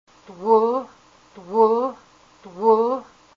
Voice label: yarn, Haida language; wul